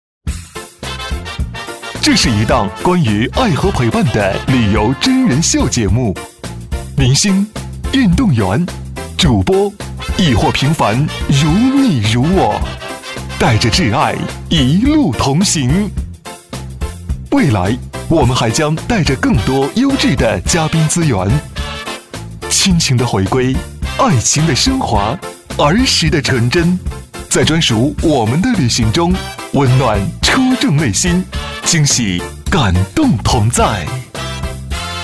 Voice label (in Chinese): 男 电视节目片花 年轻动感; 年轻活力; 科技时尚